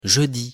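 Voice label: "jeudi", French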